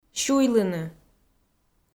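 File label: "сюйлыны" Komi, female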